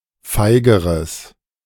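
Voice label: "feigeres", German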